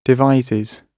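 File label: "devises", British English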